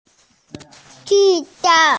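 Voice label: "bullet", female; zi3dan4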